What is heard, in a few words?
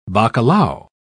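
"bacalao", British English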